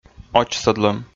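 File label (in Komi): "очсӧдлӧм", Komi, male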